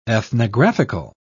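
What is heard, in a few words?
"ethnographical", British English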